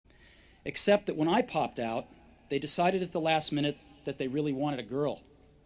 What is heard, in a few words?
Commencement Address; Steve Jobs; 2005; Stanford